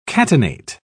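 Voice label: "catenate", British English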